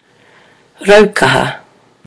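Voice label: "raukaha", Māori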